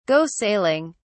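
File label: "go sailing", British English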